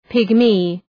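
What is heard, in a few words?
"pygmy", English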